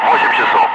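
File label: Russian speech, Ka-50 Wingman; DCS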